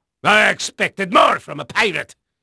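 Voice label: Dutch male, voice; Pirates Of The Caribbean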